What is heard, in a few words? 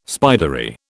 "spidery", British